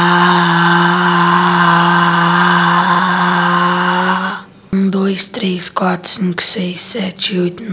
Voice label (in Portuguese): Ressonância Laringofaríngea